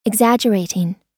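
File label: "exaggerating", American English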